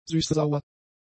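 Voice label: "süßsauer", German; adjectives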